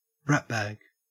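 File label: "ratbag", Australian English; pronunciations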